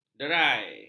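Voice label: "derai", Malay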